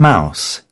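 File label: "mouse", British English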